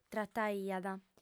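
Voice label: "trataiat", lingua sarda, female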